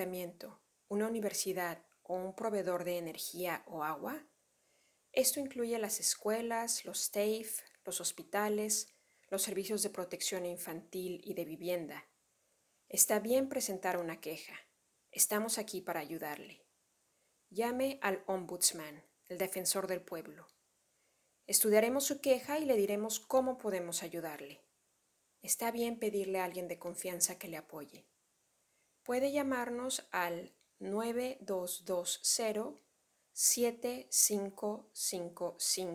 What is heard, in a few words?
Audio Spanish